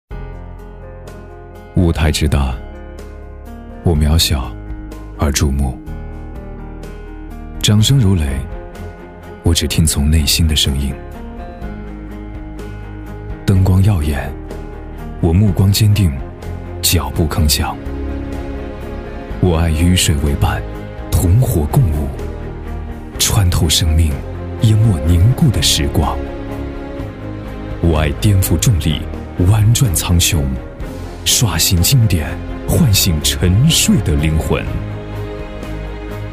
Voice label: 励志 独白; 东方卫视太阳之星

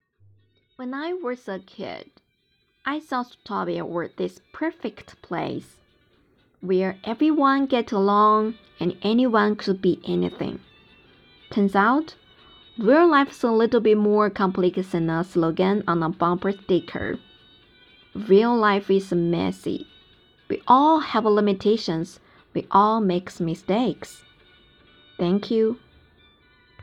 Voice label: 英语配音; 疯狂动物城

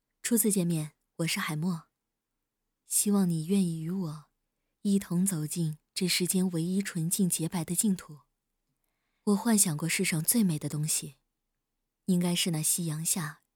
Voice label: 少年音

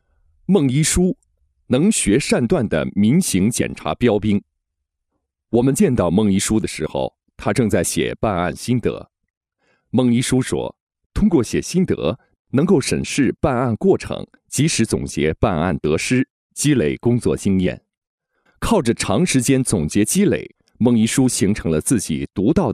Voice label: 新闻专题配音

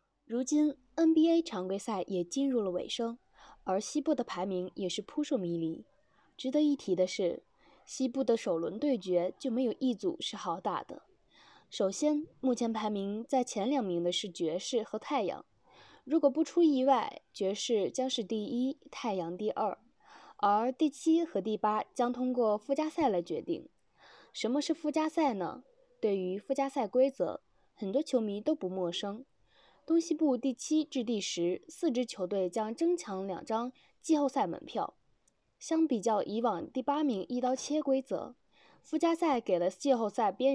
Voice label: 播腔

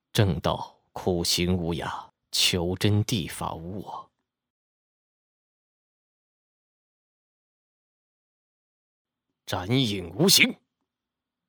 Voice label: 苦行僧干音

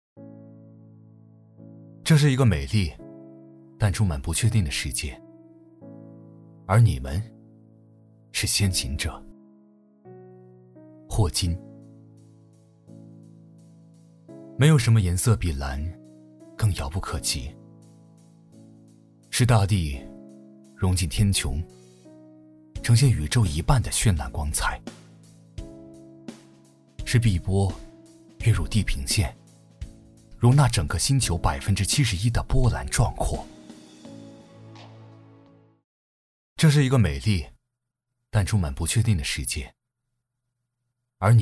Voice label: 素人讲述

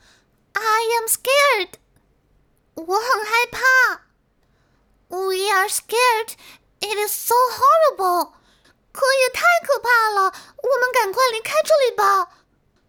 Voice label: 中英双语绘本角色女童活泼

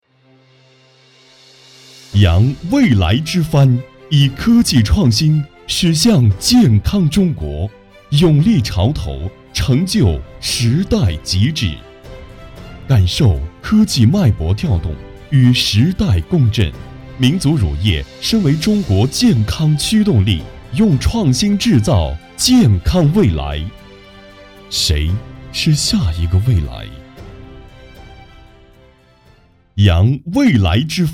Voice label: 画外音; 超群全国民族乳业大会

